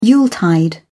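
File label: "yuletide", British English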